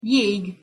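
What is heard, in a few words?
"jeg", Hungarian pronunciation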